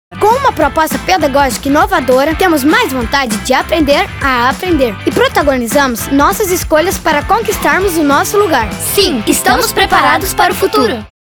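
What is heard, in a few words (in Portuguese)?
Voz Infantil, Português - Brasileiro, Masculino (13 anos), Comercial; Comercial Colégio Santa Marcelina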